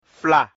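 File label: "fla", Mina syllable; mina-alphabet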